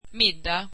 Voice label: swedish dinner

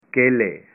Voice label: "bananas", punjabi

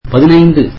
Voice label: tamil fifteen

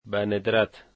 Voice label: rarely, dari

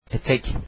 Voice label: skirt, turkish

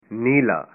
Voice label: "blue", punjabi

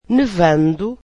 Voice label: "snowy", Portuguese

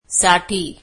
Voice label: marathi for

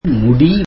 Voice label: tamil hair

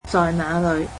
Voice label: cantonese where

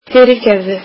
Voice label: icelandic Sorry

